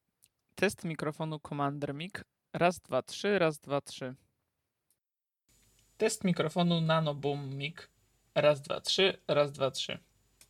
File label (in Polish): test mikrofonow